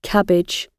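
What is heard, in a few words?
"cabbage", British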